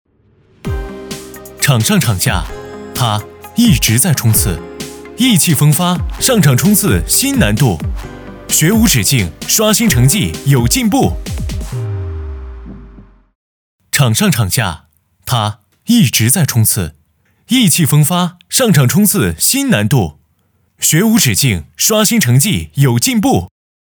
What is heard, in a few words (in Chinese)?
年轻活力